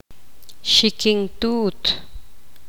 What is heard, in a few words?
poqomam